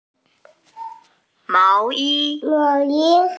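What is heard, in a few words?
"mao yi" Chinese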